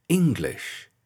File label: English